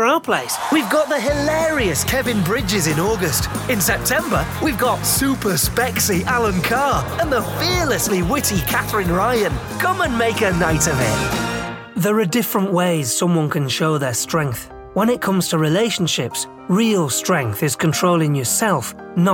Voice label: commercial montage, Northern Tenor Voice Over With Husky Edge